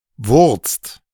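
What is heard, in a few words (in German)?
"wurzt", German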